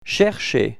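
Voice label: "ʃɛrʃe", French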